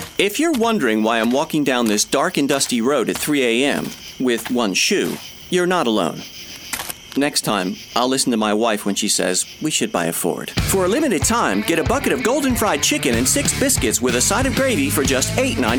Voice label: mid Atlantic commercial, Friendly Mid Atlantic Male Voiceover